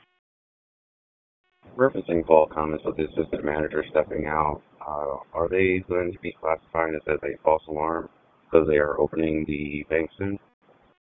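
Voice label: Police Northeast District Dispatch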